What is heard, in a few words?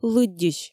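"лыддьысь", Komi, female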